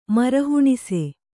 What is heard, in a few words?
"ಮರಹುಣಸೆ", Kannada